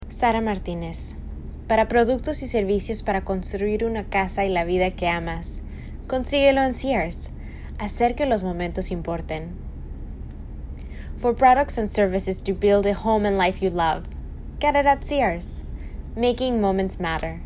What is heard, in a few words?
Spanish